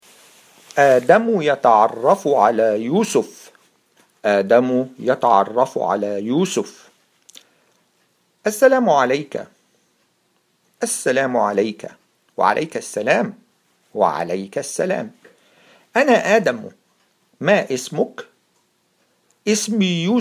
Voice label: Pronounce, Arabic